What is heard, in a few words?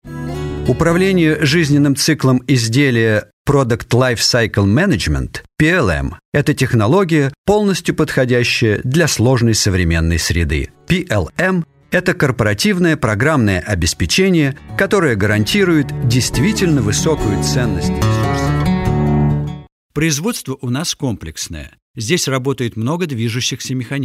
Russian Showcase